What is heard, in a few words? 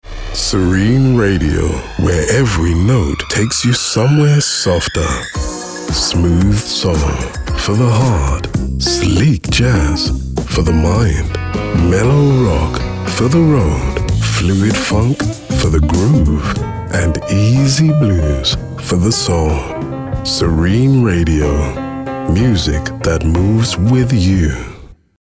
Smooth Ident